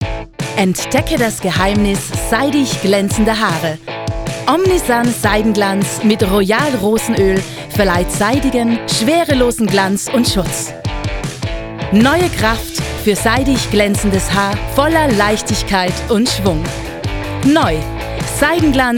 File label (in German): Werbung